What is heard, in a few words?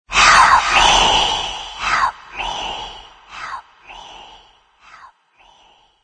help me, som macabro